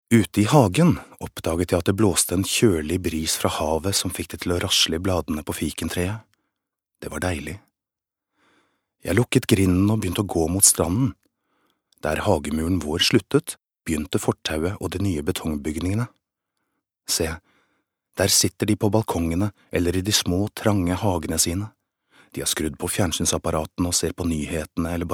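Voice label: Norwegian audiobook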